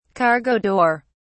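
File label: "cargo door", British English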